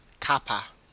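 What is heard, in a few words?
"cuppa", Australian English; pronunciations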